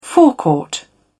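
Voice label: "forecourt", en, uk British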